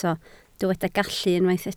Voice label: "gallu", Welsh